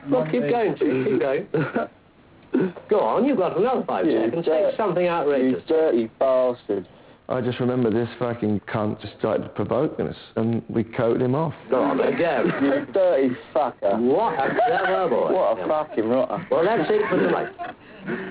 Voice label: interview